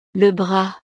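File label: "le bras", French; partes del cuerpo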